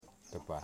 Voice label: "moon", Wamut

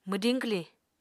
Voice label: "mwdiñ-kwliñ", Apatani

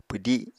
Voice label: "pwdi", apatani

